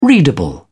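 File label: "readable", British English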